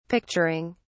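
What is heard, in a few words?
"picturing", British English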